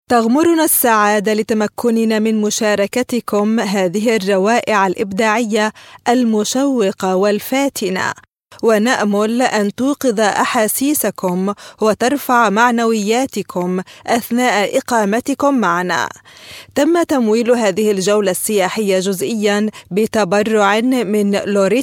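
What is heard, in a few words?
arabic, female, informative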